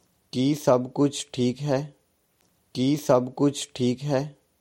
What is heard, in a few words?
Is everything fine punjabi